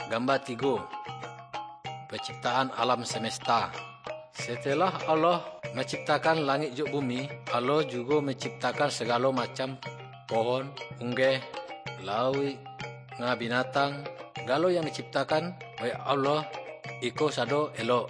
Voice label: good news minangkabau muko muko; kaba ilo